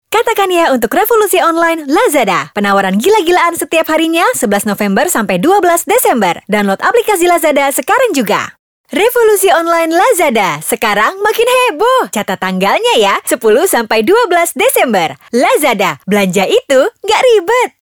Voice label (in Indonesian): Bahasa Indonesia; LAZADA C2C